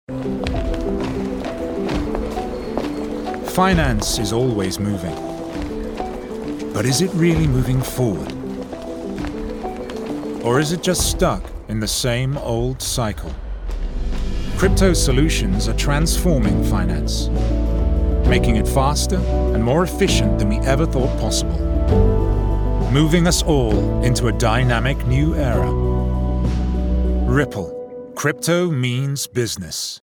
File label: cryptocurrency commercial; Ripple